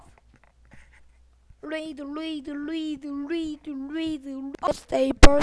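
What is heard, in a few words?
"lead", spoken word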